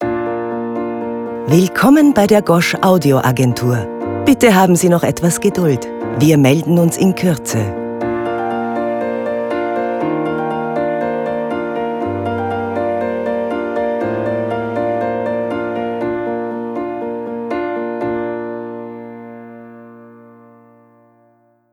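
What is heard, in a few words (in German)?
Deutsch Tele Ansage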